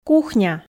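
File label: "кухня", Komi, female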